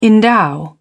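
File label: "endow", British English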